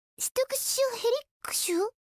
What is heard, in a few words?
alarm english; re zero; emilia version; english subbed